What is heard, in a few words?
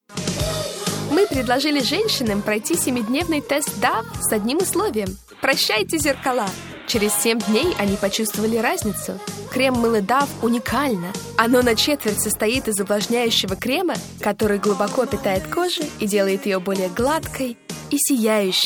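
Russian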